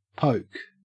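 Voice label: "poke", Australian English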